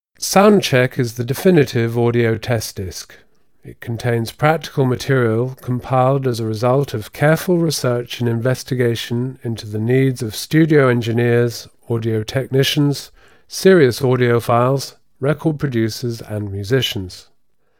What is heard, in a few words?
Spoken word slow